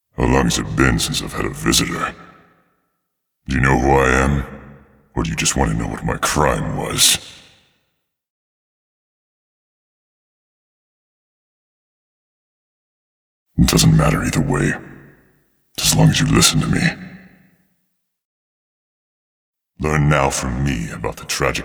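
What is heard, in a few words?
cinematic voice, Polish; lineage2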